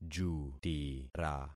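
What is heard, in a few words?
"jutira", concatenated syllables; Affixing experiment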